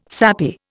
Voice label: "sappy", Indian